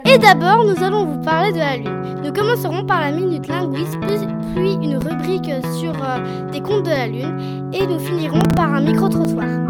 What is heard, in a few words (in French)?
presentation emission